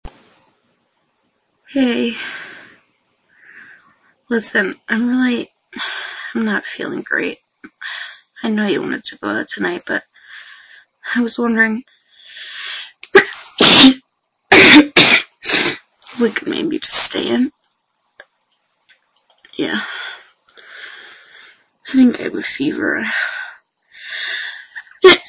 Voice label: cold talkie, female

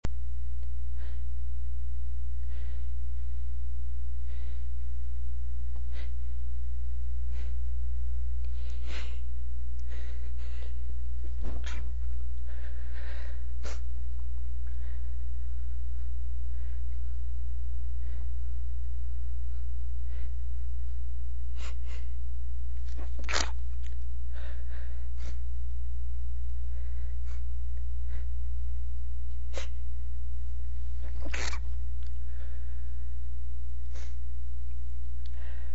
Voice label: quiet, female

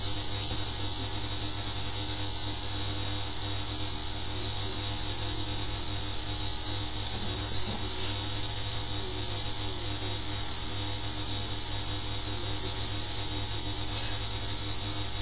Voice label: single, female

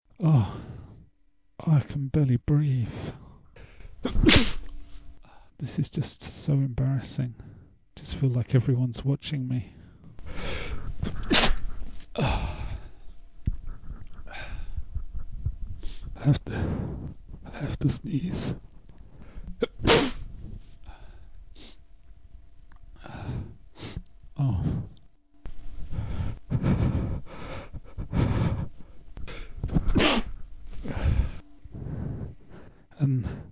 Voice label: english guy, male

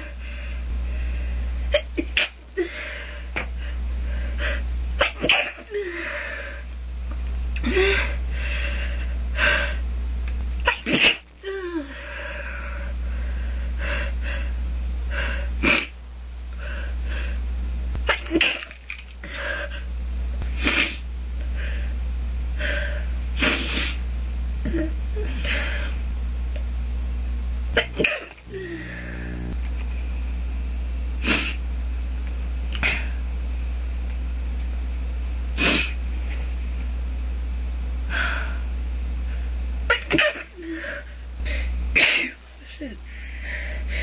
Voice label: stifle week, female

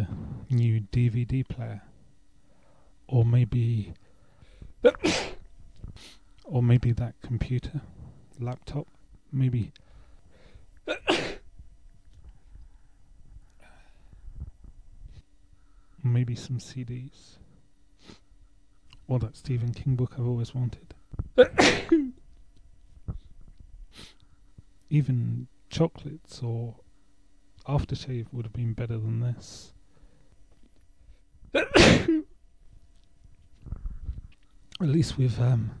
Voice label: christmas present, english guy, male

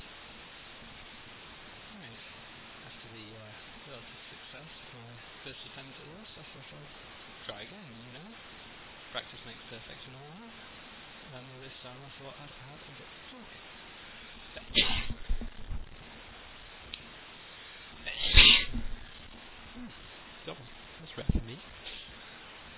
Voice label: a little chat, male

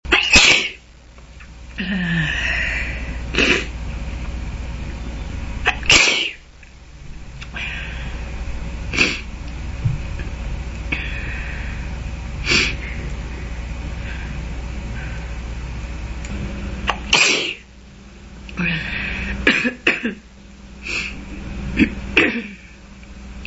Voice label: famous cold, female

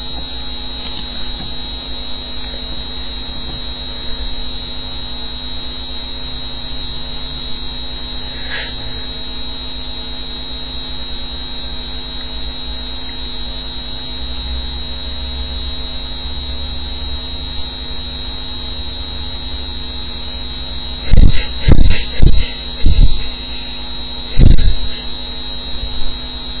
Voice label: loud, female

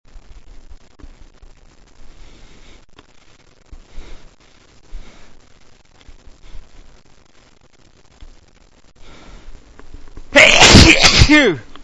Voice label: double long build, male

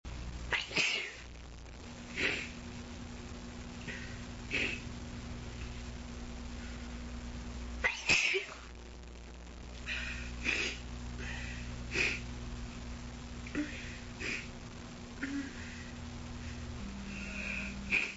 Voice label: birthday cold, female

